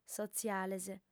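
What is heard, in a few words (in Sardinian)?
"sotziales", female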